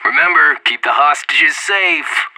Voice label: radio lock n load; anarchist

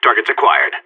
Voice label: radio enemy spotted, seal, player vo; Counter Strike; CS:GO

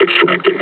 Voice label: announce attack grenade; combine charger; Half Life Alyx

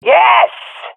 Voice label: compliment, swat fem; vo; Counter Strike Model Pack; CS:GO

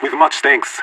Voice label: thanks, vo agents; leet

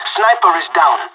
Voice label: sniper killed, pirate; Counter Strike Model Pack; CS:GO

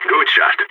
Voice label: nice shot, agents, balkan

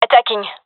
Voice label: attacking, gendarmerie fem; vo; agents

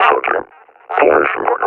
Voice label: reconnoiter start, officer; combine; Half Life Alyx; Half Life Model Pack

